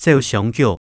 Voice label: 走相逐, 客語

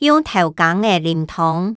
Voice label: 用調羹仔啉湯, 客語

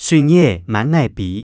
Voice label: 水蟻仔滿哪飛, 客語

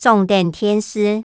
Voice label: "掌等電視", 客語

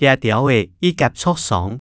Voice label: 這鳥仔翼胛著傷, 客語

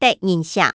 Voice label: "得人惜", 客語

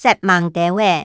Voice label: "煞猛兜仔", 客語

